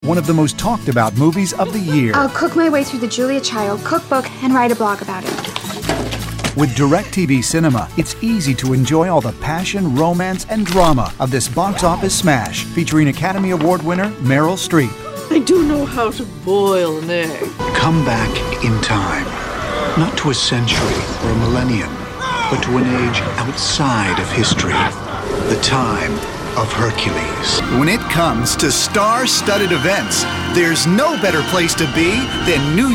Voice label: men promos; Professional, approachable, intelligent, conversational & funny.

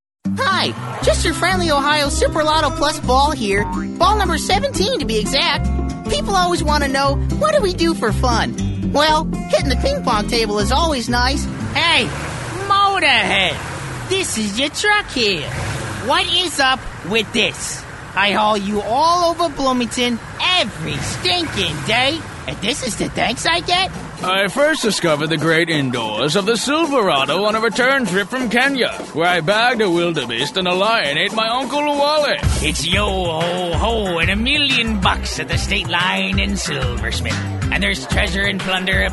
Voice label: men animation; Youthful, hip and comfy.

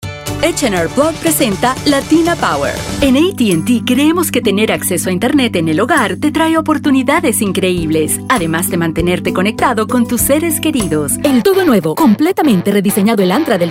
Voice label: spanish commercial; Has a wide range, from casual to announcer. Crisp, trustworthy, emotive, and happy.

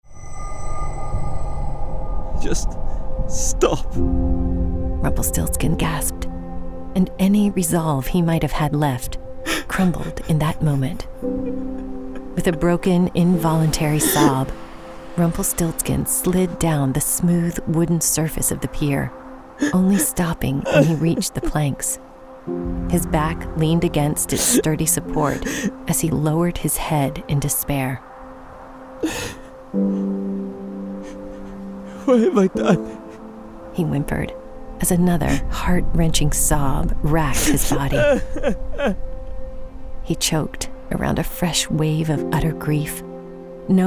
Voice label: women audiobooks

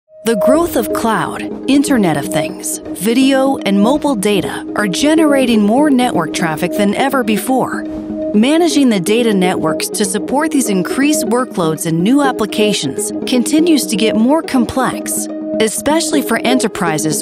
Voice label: women corporate; Grounded, warm, and unique.

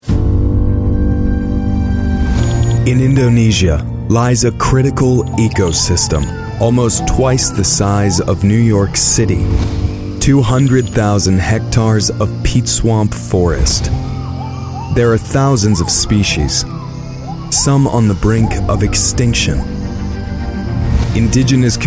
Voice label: men promos

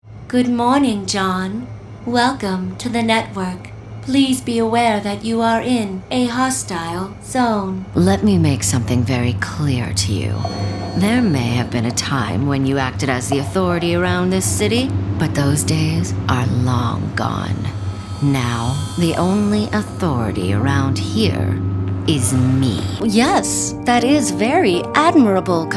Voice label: women video games; Versatile, warm, fun, and quirky.

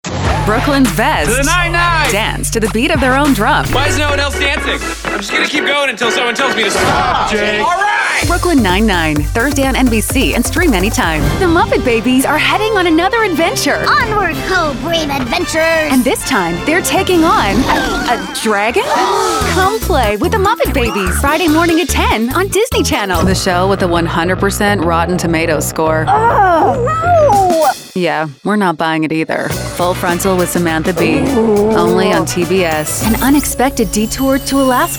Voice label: women promos; The Modern Mom. Friendly, playful and creative.

